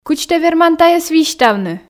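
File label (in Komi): кыдз тэ верман тайӧс висьтавны, Komi, female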